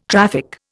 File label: "traffic", Indian